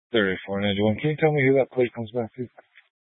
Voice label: Police 1 Dispatch

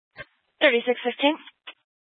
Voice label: Police Department Dispatch

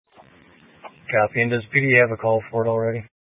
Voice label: Sheriff Dispatch

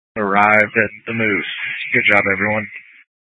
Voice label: Tactical Incidents, scanner

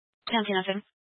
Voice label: Mesa County Sheriff Dispatch

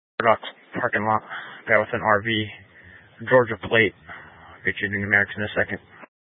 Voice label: Mesa County Sheriff Dispatch; scanner

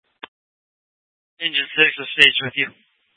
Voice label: Tactical Incidents, scanner; Grand Junction Tactical 1

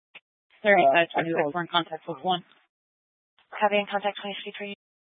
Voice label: Police Department Dispatch; Grand Junction

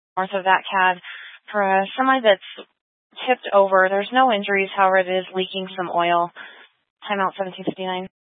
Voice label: Fire Department Dispatch; Grand Junction

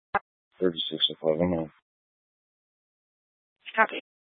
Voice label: Police Dispatch, scanner; Police 1 Dispatch

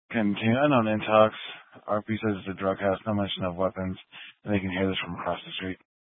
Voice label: Police Department Dispatch, scanner

